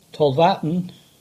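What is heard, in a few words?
"Tolvaddon", English; Talvan c1200 (Gover, 1948)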